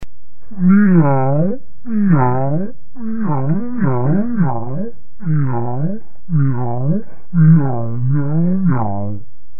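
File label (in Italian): La voce da orco (effetto pitch-shift), Frase modificata; I bambini, con l'aiuto dell'insegnante, registrano e rielaborano la propria voce al comput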